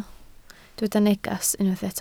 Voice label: "neges", Welsh

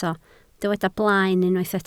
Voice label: "blaen", Welsh

